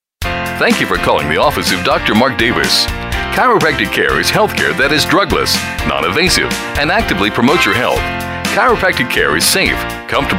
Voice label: On-hold (male)